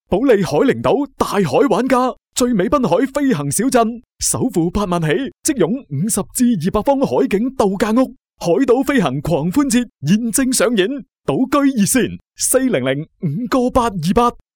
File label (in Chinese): 粤男; 保利海陵岛